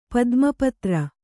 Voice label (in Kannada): "ಪದ್ಮಪತ್ರ", Kannada